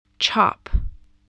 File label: "chop", English, voice